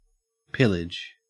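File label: "pillage", Australian English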